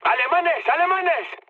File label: enemy detected, mood med, speech; War Thunder